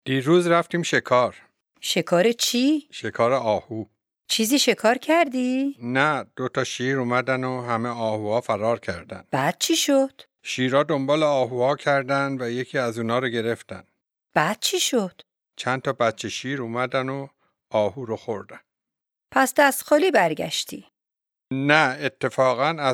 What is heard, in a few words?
Dialogue lesson Farsi